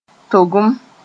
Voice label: "togem", Mooré

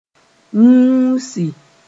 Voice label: "wũusi", moore; low

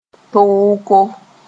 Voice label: "tooko", moore

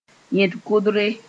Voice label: "yẽd-kʋgdre", Mooré; hipbone

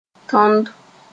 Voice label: "tõnd", Mooré

